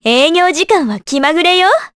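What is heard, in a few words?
voice, Japanese; Juno; kingsraid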